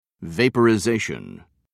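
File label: "vaporization", British English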